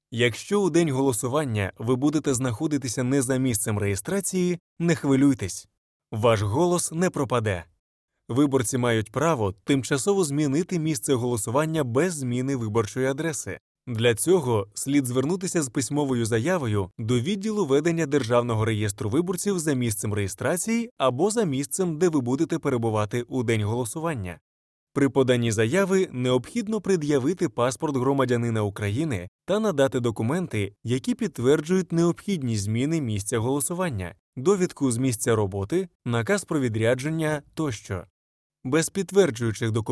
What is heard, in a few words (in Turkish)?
Ukraynaca Erkek, ukraynaca seslendirme; erkek sesler